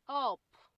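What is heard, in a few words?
"up", Nigerian Pidgin, female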